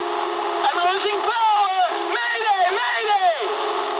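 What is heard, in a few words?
English damaged engine mood medium; War Thunder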